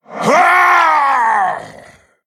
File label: Male Tolvir Death